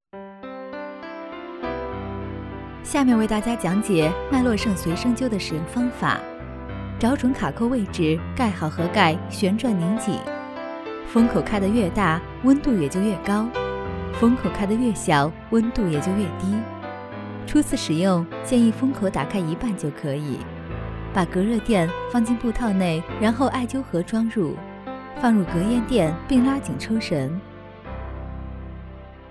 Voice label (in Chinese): 女, 解说, 随身灸; 迈洛晟随身灸